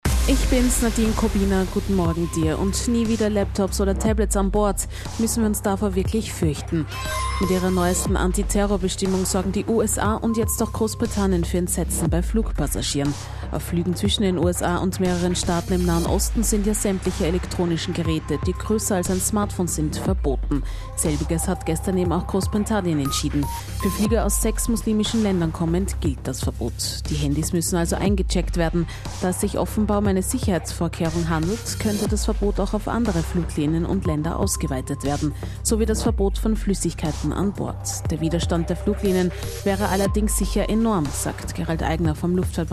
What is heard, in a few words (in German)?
Interview auf Kronehit Radio; Austrian Wings; Laptopverbot an Bord